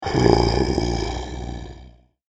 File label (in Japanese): ゾンビボイス